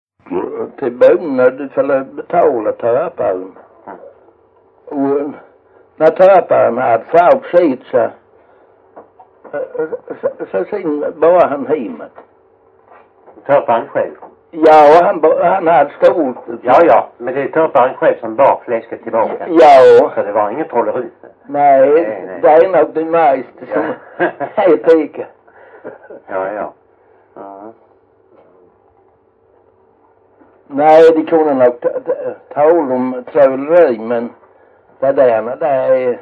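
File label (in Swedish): Jämshög Blekinge, svenska sagor dialekter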